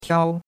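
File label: "tiao1", Mandarin Chinese; Cours-de-Chinois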